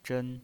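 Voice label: "zhen" Mandarin Chinese pinyin voice, first tone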